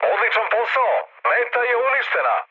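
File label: Serbian bomb success mood med, speech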